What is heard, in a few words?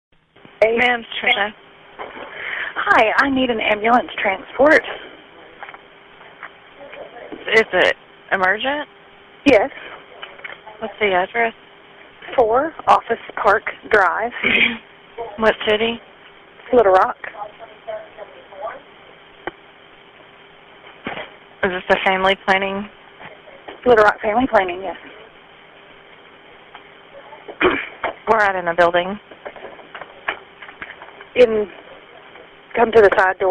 911 Call